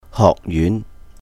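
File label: "hohk yún", Cantonese; college; 學院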